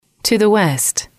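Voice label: to the west, English, female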